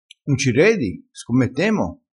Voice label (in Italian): "scommettemo", crespinese